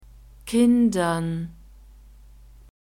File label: "kindern", german